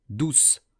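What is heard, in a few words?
"douce", French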